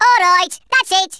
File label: "first blood", Brummie; Worms Armageddon